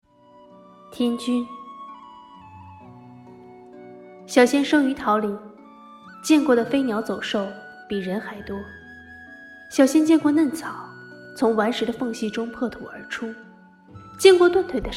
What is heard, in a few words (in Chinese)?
电视剧配音古装